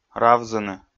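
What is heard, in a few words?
"равзыны", Komi, male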